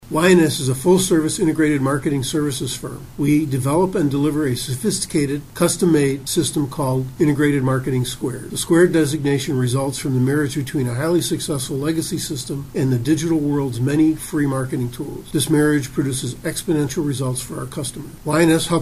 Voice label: Commercial